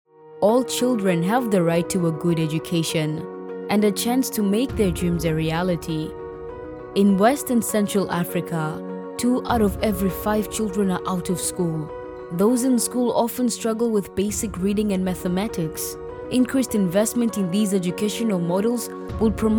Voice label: Narration, female; female elearning and explainer voice over